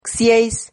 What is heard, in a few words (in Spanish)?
"xieis", Silabas